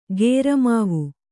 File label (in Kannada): "ಕುರಮಾವು", Kannada